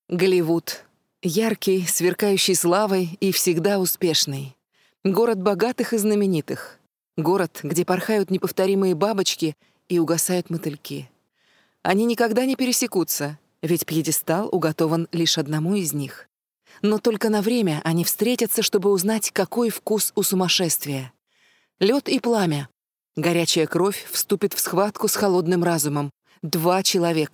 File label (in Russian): audiokniga